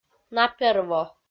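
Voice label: "наперво", Komi, female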